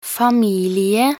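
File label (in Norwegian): "familie", Norwegian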